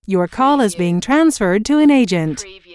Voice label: US female phone prompt Your call is being transferred to an agent; lynne publishing